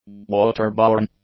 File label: "waterborne", English; adjectives